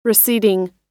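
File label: "receding", US English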